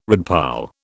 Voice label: "redpoll", British